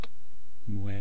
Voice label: "mwe", East Cree syllabics